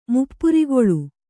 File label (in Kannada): "ಮುಪ್ಪುರಿಗೊಳ್ಳು", Kannada